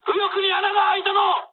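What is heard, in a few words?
right wing mood high, speech japanese; War Thunder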